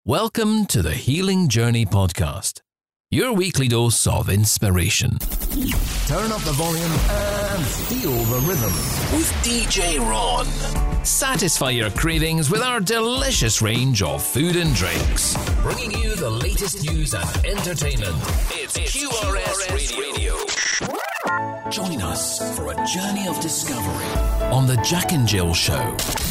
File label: english scottish corporate videos